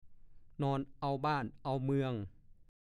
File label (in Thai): "นอนเอาบ้านเอาเมือง", ภาษาโคราช; (สำนวน) นอนจนสายก็ยังไม่ลุก